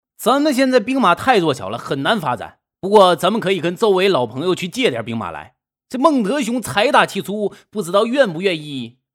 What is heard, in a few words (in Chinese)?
东北信息流